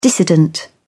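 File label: "dissident", British English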